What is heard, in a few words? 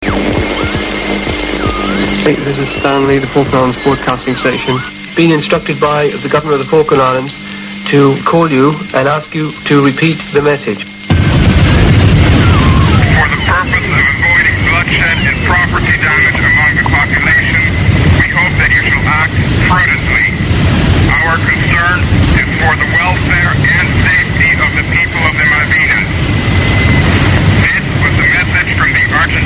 radio falklands